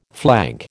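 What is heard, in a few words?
"flank", American English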